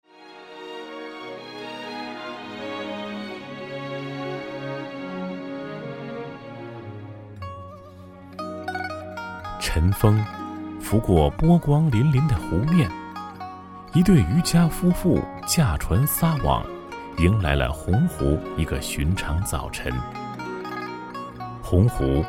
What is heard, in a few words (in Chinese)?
男, 纪录片; 洪湖人情文化